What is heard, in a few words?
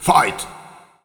Voiceover "Fight"